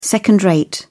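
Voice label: "second-rate", British English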